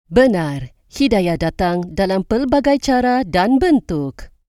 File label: Malay Tag Line